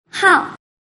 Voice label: "hao", mandarin